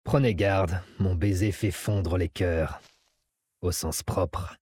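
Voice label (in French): French Male Flirt; FondreCoeur; Dracthyr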